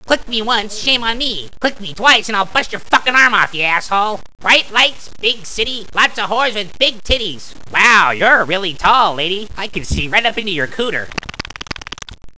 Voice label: mumble